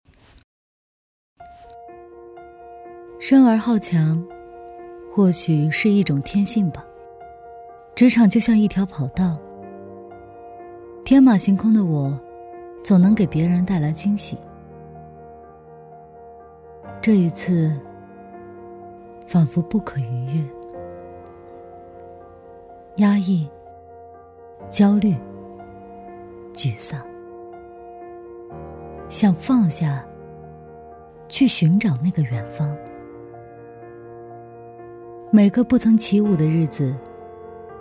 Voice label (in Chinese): 职场白领旁白